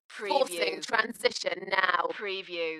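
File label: British female Forcing transition now; Dalekness; beanstalk audio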